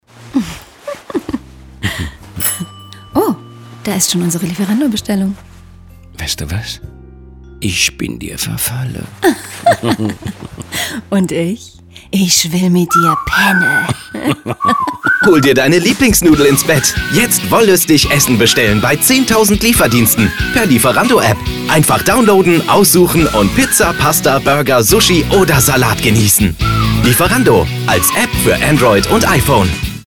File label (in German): Funkspot; Lieferando; Lieblingsnudel; bunch sound; Berlin; Funkspotproduktion